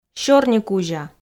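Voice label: "сёрникузя" Komi, female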